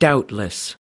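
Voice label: "doubtless", US English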